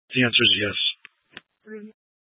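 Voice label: Sheriff Dispatch, Scanner audio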